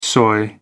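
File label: "soy", English, British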